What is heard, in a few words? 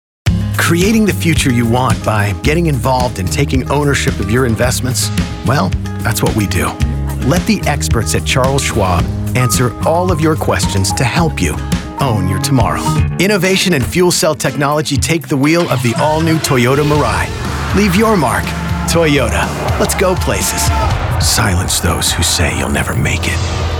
Commercials